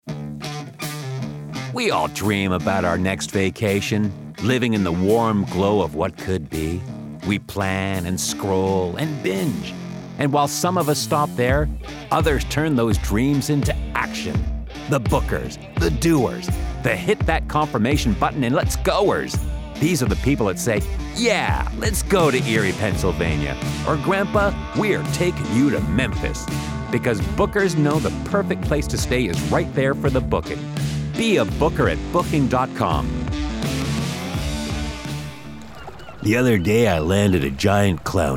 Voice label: commercials